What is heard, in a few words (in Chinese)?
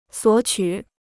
"索取", Chinese